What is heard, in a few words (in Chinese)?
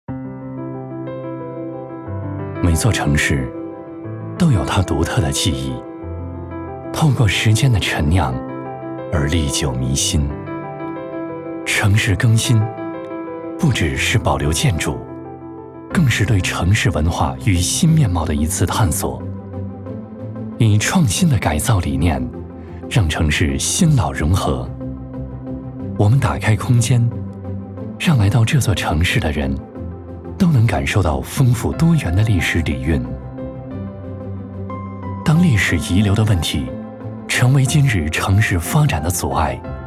男, 温馨现代; 城市更新